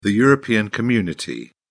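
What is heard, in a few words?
"european community", British English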